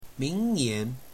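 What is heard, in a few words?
ming2nian2, Mandarin; P4拼音補充(2)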